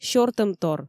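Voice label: "сёртӧмтор", Komi, female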